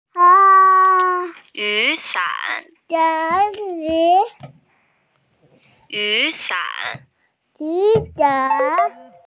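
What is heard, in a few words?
"umbrella", Mandarin; yu3san3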